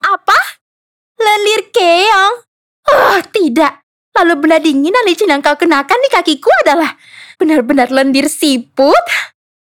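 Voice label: character Indonesia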